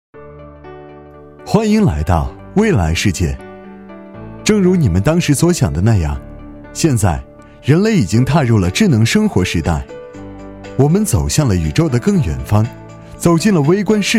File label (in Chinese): 男 专题; 未来世界